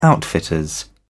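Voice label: "outfitters", British English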